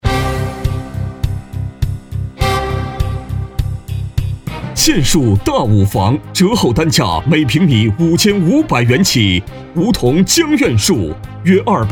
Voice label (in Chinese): 男 广告